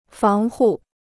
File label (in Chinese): "防护", Chinese